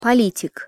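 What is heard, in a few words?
"politik", Russian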